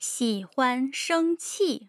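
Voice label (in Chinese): xi3 huan1 sheng1 qi4, Mandarin; tough sounds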